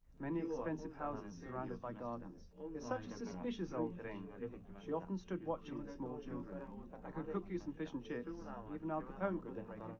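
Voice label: male3 live recording 130ms 5cm, underdetermined; SiSEC11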